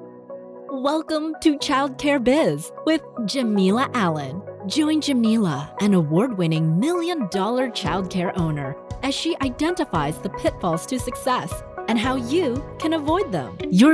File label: Podcast Intro Outro VO; voice-over